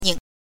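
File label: "ning", Mandarin Chinese pinyin, neutral tone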